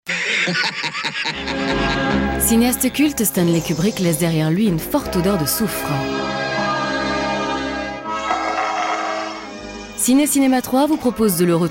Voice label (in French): reportage; stanley kubrik